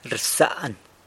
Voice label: "rsan", San Lucas Quiaviní; leaves (something) behind

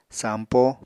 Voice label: "sampo", Apatani

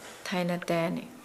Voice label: Nukuoro; brother

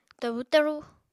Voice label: "tabu taru", Apatani

